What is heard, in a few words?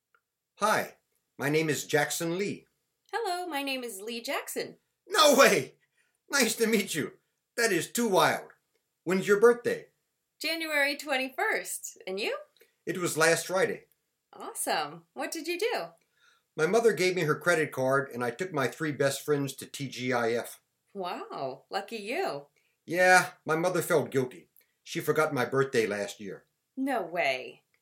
Conversation